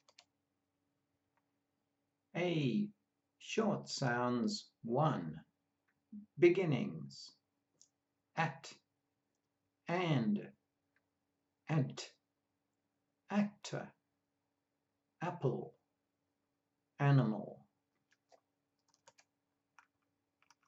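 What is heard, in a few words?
A short sounds, pronunciation of australian english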